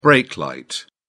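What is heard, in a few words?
"brake light", British English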